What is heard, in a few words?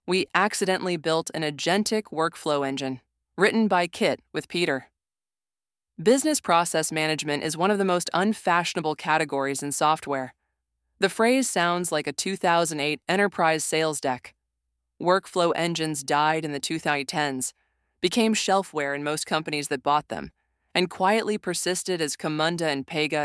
narration